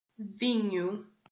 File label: "vinho", portuguese